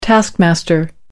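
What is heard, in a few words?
"taskmaster", British English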